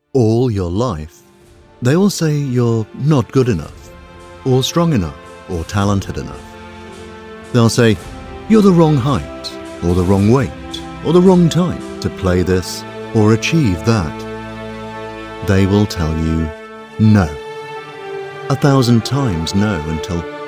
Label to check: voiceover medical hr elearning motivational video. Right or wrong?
Right